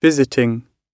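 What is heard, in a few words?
"visiting", British English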